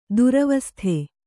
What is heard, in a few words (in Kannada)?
"ದುರವಸ್ಥೆ", Kannada